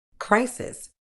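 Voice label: "crisis", British English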